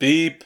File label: Voices urgent; gamerip; the looker